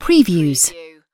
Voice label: UK female "previews"; lynne publishing